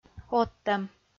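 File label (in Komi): "оттӧм", Komi, female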